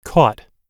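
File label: "caught", American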